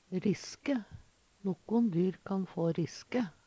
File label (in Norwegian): riske, Numedalsmål